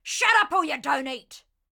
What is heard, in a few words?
Ranged Female Patrol Line; NPC; Heist Thug Captain Patrol